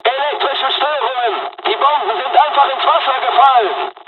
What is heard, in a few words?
dialog, dialogs german; War Thunder; midway